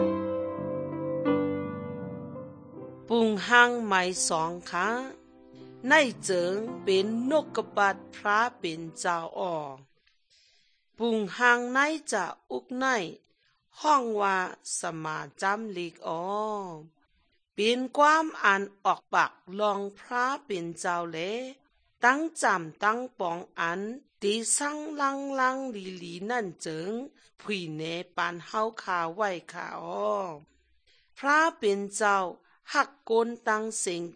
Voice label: good news shan eastern, drama; bible; shan thailand bible society nt drama

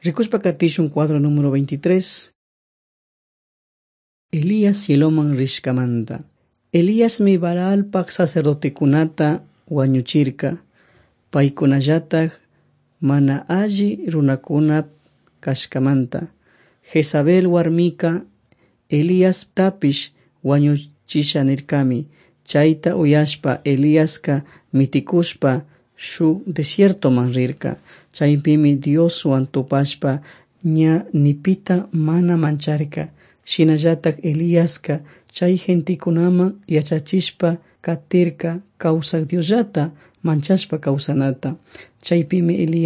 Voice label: servants of god quichua chimborazo

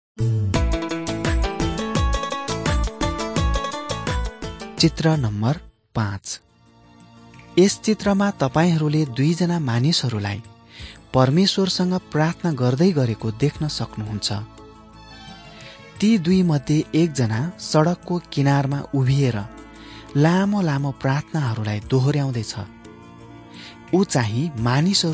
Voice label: jesus teacher and healer nepali

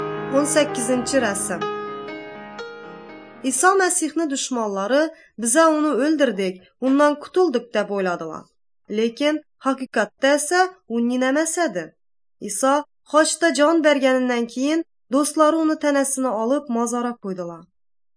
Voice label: uzbek northern kipchak; good news

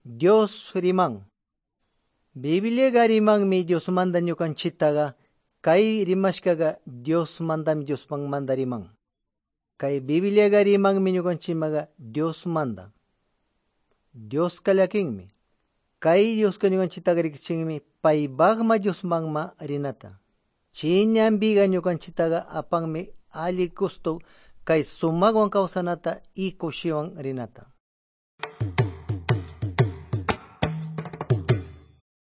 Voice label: good news quichua de pastaza

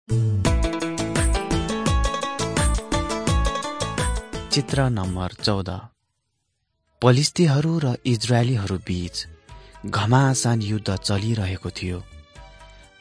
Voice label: servants of god nepali

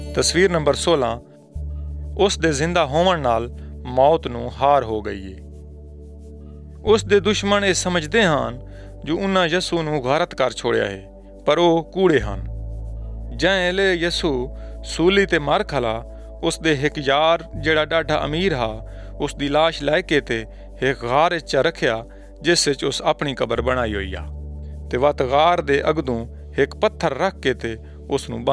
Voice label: saraiki jangli; good news; picture 16 jesus suffers

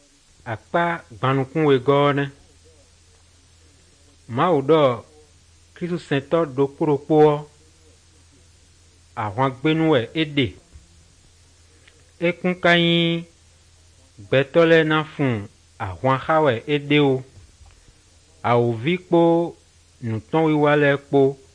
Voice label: good news, fon fongbe bible drama

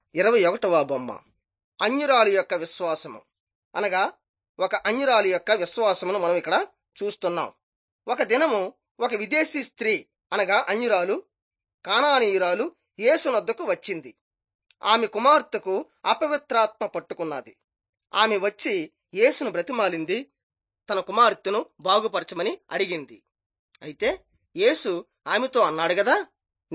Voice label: telugu bangladesh; jesus teacher and healer

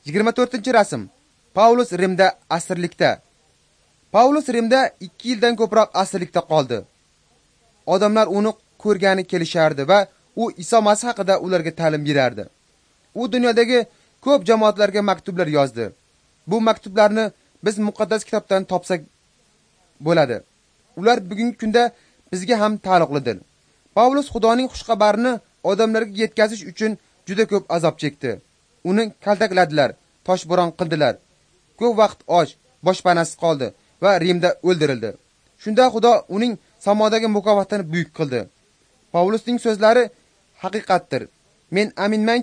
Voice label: uzbek northern; acts of the holy spirit

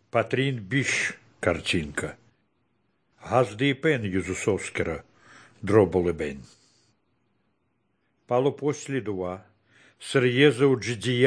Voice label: good news baltic romani

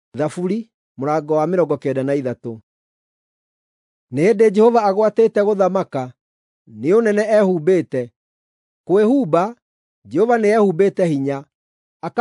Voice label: psalms, gikuyu drama

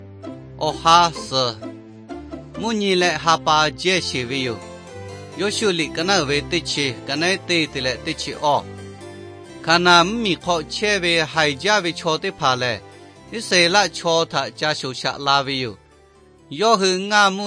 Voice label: victory through god lahu na, nt drama; lahu thailand bible society

